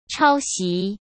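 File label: "抄袭", Mandarin Chinese; chinese-flashcards; hsk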